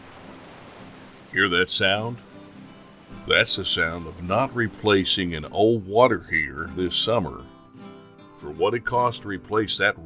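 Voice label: narrator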